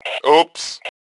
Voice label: "Ooops", Male; T2 Male 02